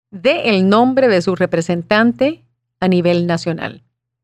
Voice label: Spanish